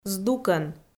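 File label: "здукӧн", Komi, female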